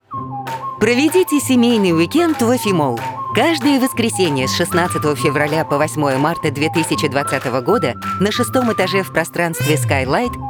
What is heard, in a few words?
radio